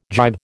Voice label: "gybe", American